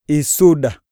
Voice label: jump, iyasa